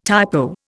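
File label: "typo", Indian English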